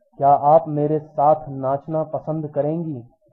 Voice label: dance hindi